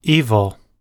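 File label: "evil", English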